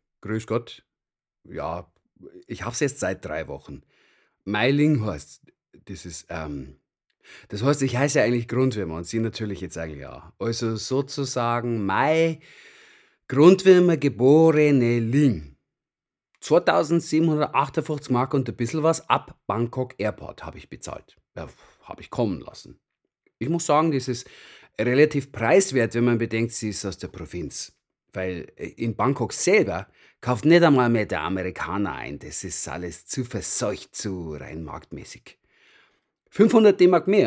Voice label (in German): Bayerisch